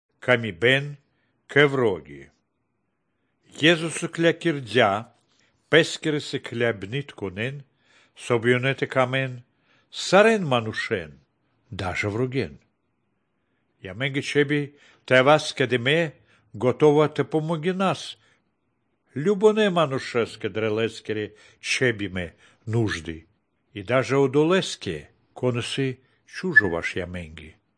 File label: good news baltic romani